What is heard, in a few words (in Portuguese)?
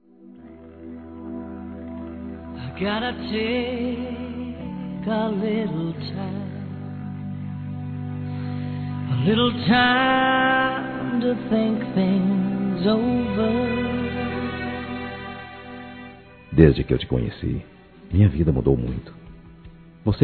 Eu já disse que te Amo Masculino, Telemensagens